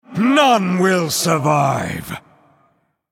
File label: male; eternalone trigger atonement